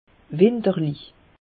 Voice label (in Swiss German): "winterlich", alsace; meteo